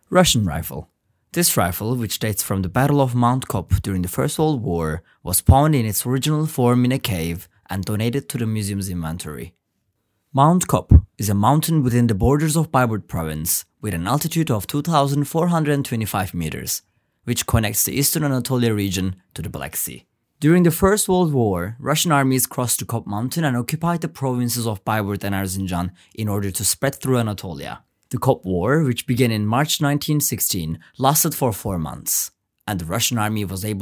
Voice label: english